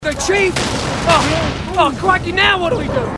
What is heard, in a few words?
marine aussie; h3dialogue